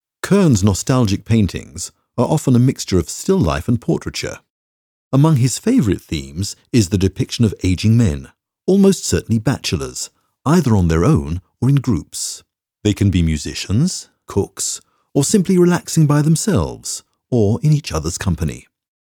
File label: english british audio guides